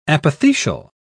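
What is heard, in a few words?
"apothecial", British English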